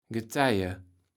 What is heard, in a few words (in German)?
"Getije", Platt